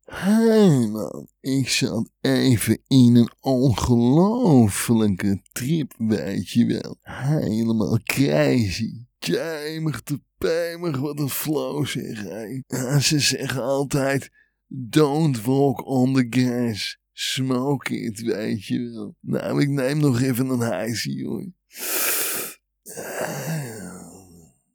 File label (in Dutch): Cartoon native Dutch voiceover